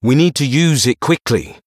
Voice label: Male Blood Elf